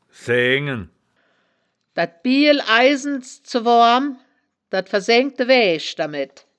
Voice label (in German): "saeaengen", wittlicher; das wittlicher woerterbuch; woerterbuch vertonung